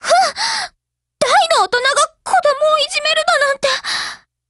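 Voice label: break, Japanese; GF Alarm; Carcano1891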